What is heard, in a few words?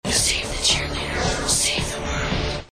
whisper